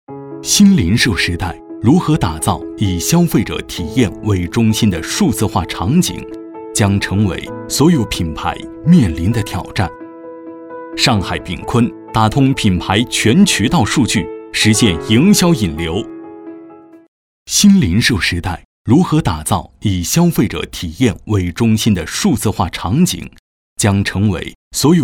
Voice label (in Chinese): 专题 企业